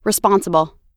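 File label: "responsible", US English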